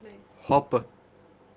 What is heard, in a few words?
"hopu" chubby kashmiri